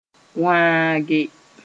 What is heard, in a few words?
"wãage", Mooré; cut one thing